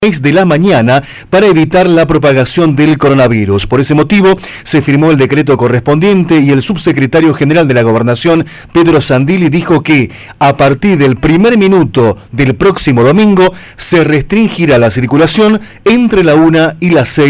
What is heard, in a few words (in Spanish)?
locución